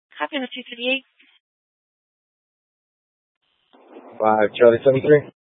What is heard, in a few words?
Dispatch, scanner; TOPAZ Rgnl Wireless Co-op (TRWC); Mesa PD Dpt; 4 Sprstn Dispatch